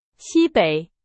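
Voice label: Chinese, vocabulary; hsk2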